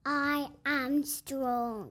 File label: Nursery Child I am STRONG